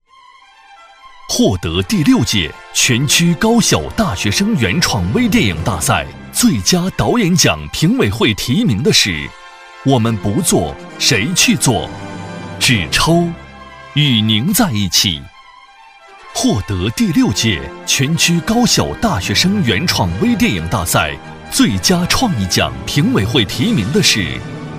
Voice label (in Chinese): 男 电影节颁奖; 男B2-厚重有力; 《获得第六届全区电影奖的是》